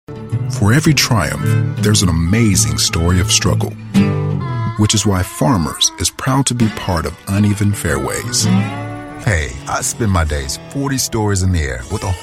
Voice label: Commercial Blended